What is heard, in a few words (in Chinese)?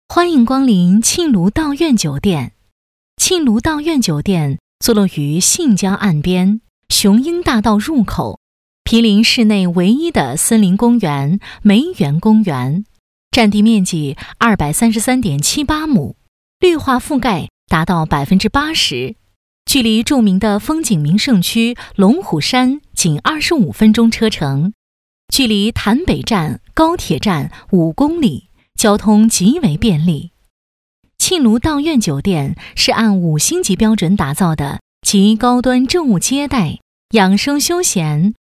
女 专题 景点